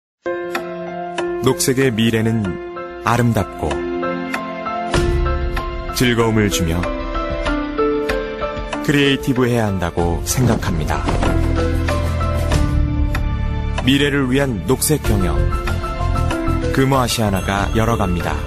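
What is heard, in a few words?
Korean, male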